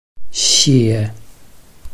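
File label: "xie5", Mandarin Chinese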